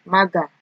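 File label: "maga", Nigerian Pidgin, female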